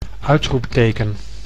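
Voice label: "uitoepteken", Dutch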